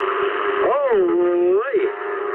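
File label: English trick mood med; War Thunder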